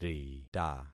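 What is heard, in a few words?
"rita", concatenated syllables; Affixing experiment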